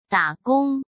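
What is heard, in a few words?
"打工", Mandarin Chinese; hsk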